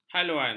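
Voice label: "haluan", Malay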